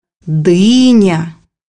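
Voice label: "melon", Russian; bukvar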